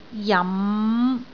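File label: "jam5", Cantonese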